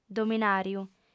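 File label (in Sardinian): "dominàriu", female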